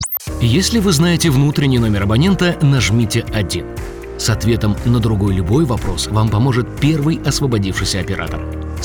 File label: IVR